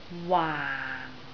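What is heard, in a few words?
"waang4" Cantonese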